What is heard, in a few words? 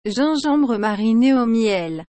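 "gingembre marine au miel", French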